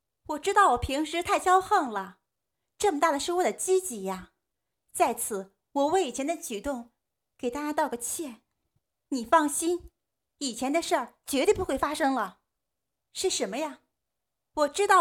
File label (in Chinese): 女-模仿; 瞿颖